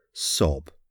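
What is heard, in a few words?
"sob", English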